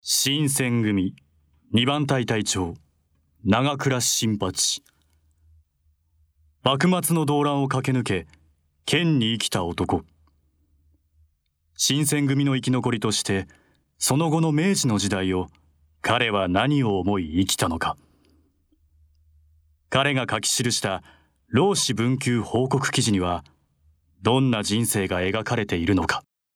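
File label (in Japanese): ナレ 歴史, ボイスサンプル; rekishi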